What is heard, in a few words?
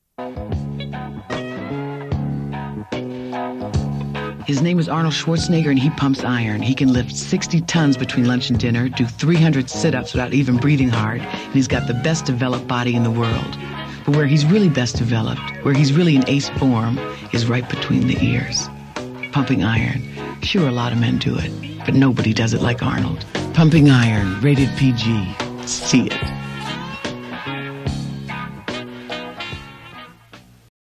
Radio Spot